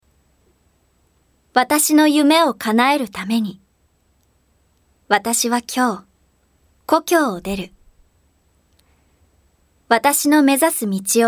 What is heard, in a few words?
narration